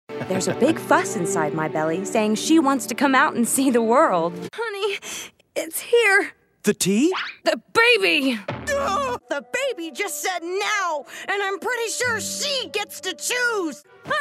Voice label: Cartoon